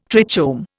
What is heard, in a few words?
"trichome", Indian